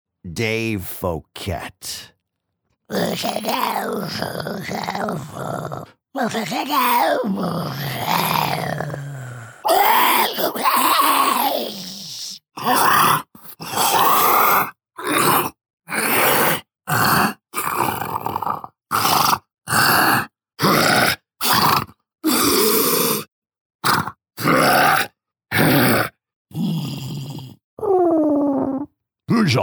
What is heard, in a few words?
animation male creatures